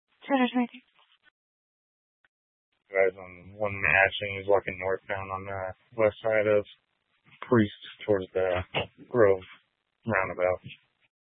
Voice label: Tempe PD, scanner; Rgnl Wireless Co-op (RWC)